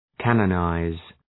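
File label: "canonize", English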